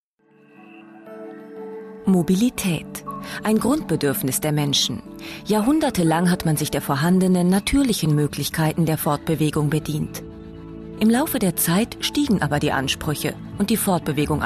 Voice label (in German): industriefilm